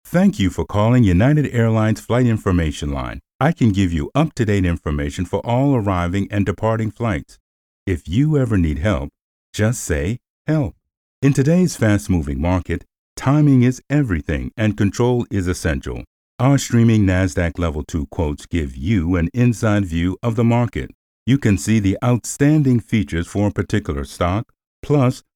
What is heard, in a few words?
IVR